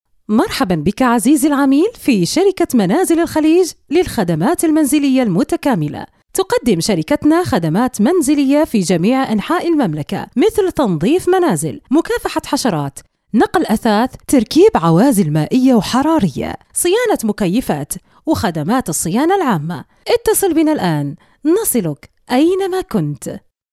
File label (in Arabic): تعليق صوتي